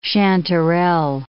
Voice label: "chanterelle", English

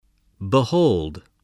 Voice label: "behold", English

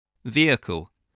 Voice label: "vehicle", English

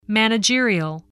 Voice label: "managerial", spelling voice

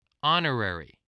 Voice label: "honorary", voice spelling

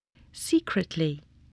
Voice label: "secretly", english spelling

